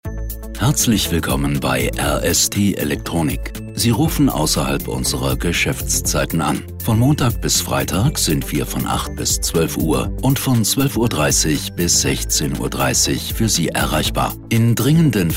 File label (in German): Telefonansage; RST Elektronik